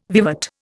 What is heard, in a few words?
"vivat", Indian